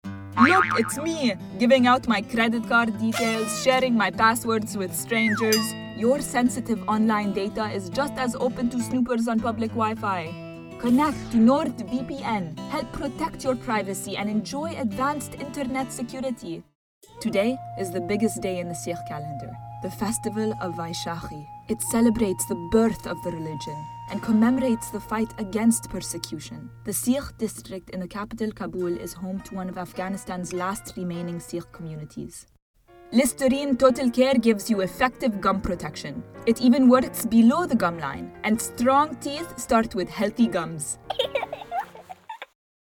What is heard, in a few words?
Arabic Accent Commercial